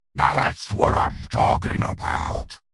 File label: happy; fertig classic games; croc